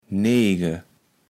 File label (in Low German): "neege", kaevels platt